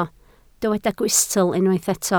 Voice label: "gwystl", Welsh